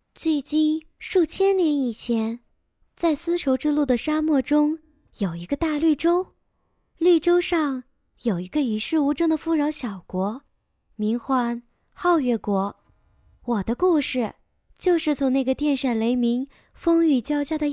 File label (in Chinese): 古风 小说 少女音